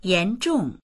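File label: "yan2zhong4", Mandarin Chinese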